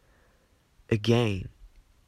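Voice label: "again", Japanese, male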